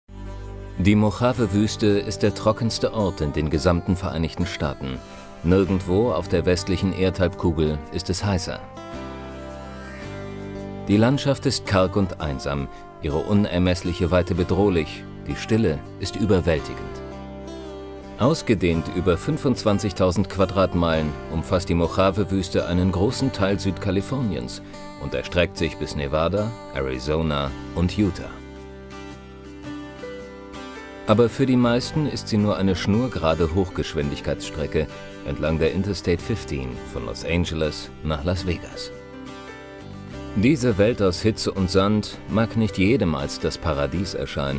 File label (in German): Doku; LOFT VOICES